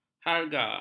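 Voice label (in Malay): "harga", Malay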